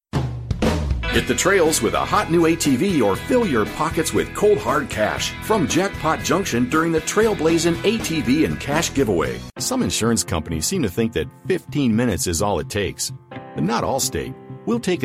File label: English Commercial